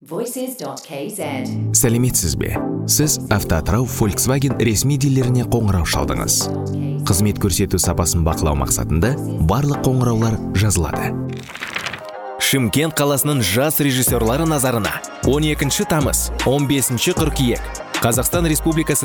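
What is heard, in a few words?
kazakh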